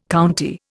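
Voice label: "county", Indian English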